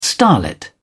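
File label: "starlet", British English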